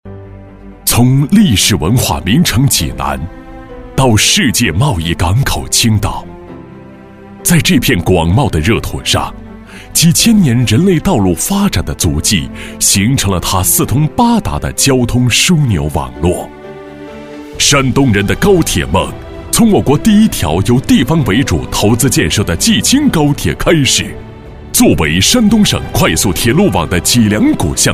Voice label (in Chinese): 男国 政府宣传片; 济青高铁